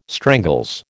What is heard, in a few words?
"strangles", American